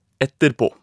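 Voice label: "etterpaa", Norwegian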